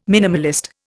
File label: "minimalist", Indian